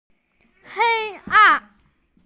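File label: "darkness", Mandarin; hei1an4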